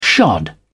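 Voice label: "shod", British English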